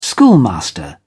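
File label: "schoolmaster", British English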